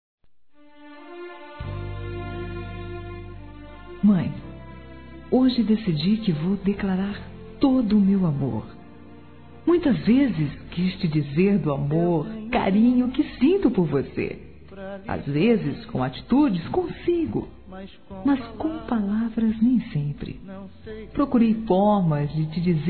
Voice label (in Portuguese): homenagem a mãe F, telemensagens; fem